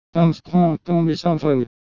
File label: converted speech